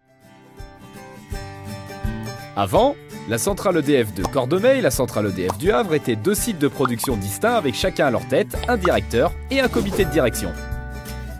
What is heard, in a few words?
M, FR FR, narr